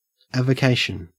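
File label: "avocation", Australian English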